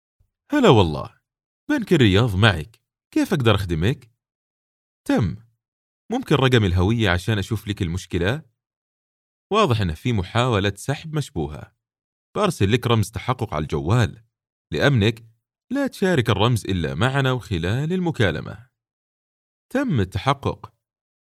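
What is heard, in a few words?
Arabic General; voice demos; GoLocalise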